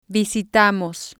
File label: "visitamos", Spanish